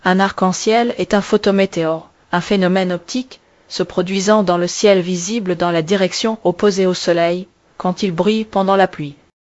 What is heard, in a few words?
speaker, fr FR; piper-voices